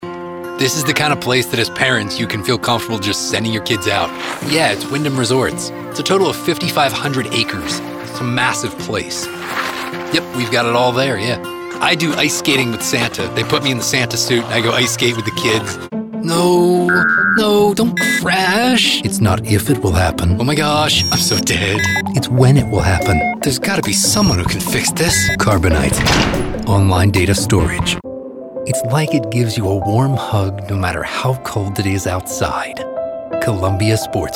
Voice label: Commercials